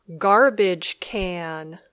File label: "garbage can", English